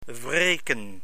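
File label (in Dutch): "wreken", Dutch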